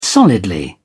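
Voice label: "solidly", English, British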